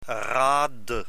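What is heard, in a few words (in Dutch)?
"raadde", Dutch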